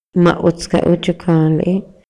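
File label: Maya, male; RELIGIÓN Y CREENCIAS; INALI; MayaYuc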